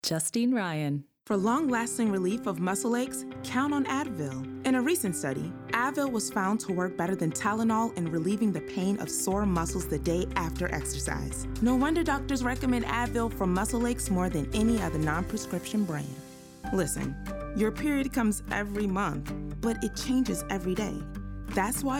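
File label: commercial VO; 2025 mfa acting showcase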